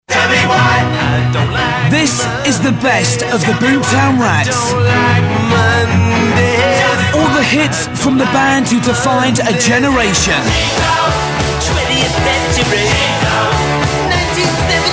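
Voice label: commercials, DJ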